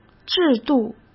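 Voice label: "制度", Mandarin Chinese; chinese-flashcards; hsk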